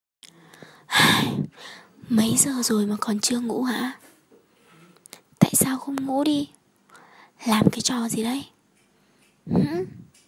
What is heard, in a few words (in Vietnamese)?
Đoạn ghi âm Chúc Ngủ Ngon, tiếng con gái gọi điện chúc ngủ ngon; troll vợ, troll người yêu